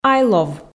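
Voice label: "айлов", Komi, female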